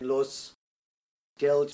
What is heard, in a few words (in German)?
bonn velarisiertes l, sprache